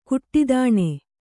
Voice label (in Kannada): "ಘಟ್ಟಿತನ", Kannada